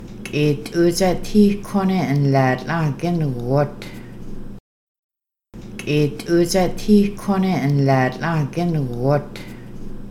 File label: Warm the bark and bend it, Kaska